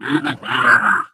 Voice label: impatient